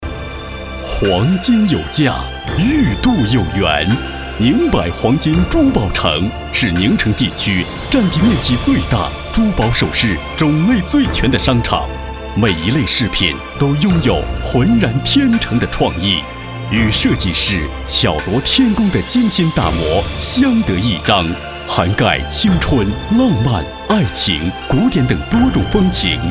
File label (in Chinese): 广告 黄金, 国语男声配音, 稳重专题; 宁百黄金